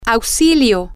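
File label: help, spanish, female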